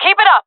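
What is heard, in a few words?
round winning, female voice; player vo; Counter Strike Model Pack; CS:GO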